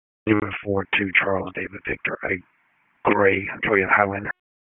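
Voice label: Police Dispatch; Wake Forest Police Disp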